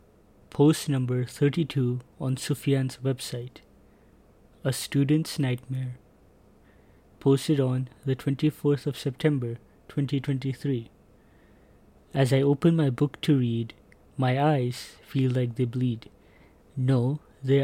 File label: voiceover